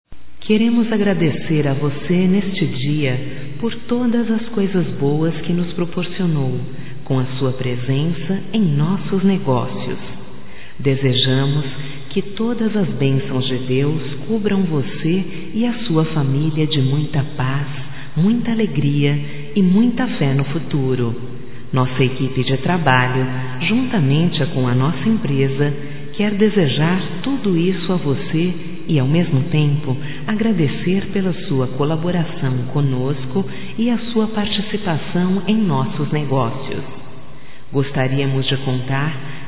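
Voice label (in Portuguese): voz, Agradecimento ao Cliente, female, Telemensagens; Telemensagens 2017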